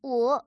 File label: female